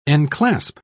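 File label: "enclasp", British English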